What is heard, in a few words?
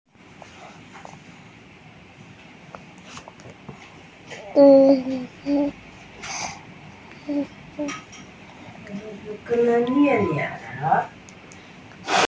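"flour", Mandarin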